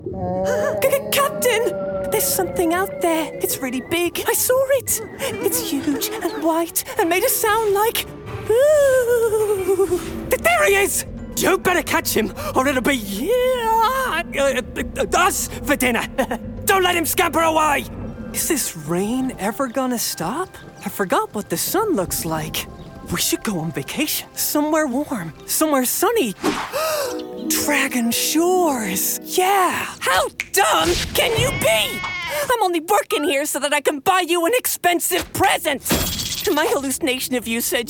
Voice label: irish animation; Youthful, versatile voice with a talent for accents & characters. Irish (Naive), British Standard, and American Standard.